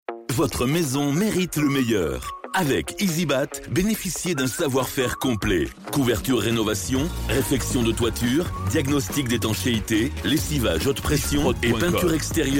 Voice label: Spot; Easy Bat